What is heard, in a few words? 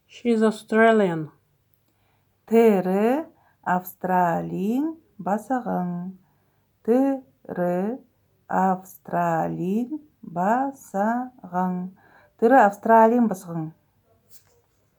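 Buryat She is Australian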